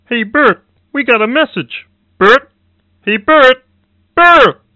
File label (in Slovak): sms hlásky en